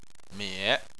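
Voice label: "mie", Chinese, third tone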